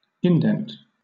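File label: "indent", British English; pronunciations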